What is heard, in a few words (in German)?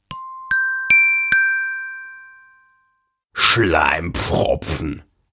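"Schleimpfropfen", German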